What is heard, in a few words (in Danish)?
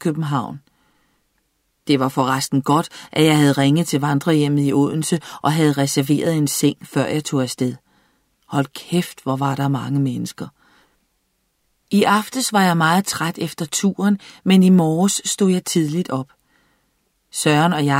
En e-mail hjem, Dänisch; daenisch